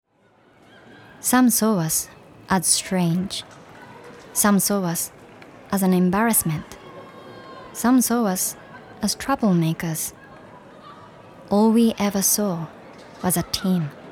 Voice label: Commercial English Dramatic